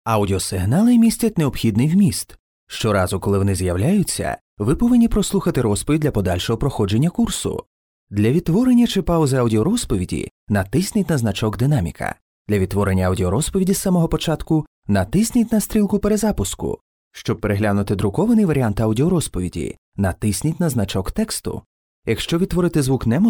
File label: ukrainian e-learning